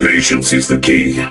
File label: robo lead vo; brawl stars